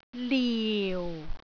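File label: "liu4", Cantonese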